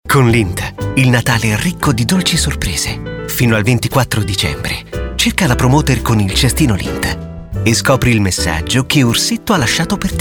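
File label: Italian, male, commercial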